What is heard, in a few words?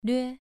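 "lve", tone 2, pronunciation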